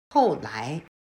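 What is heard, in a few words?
"后来", Chinese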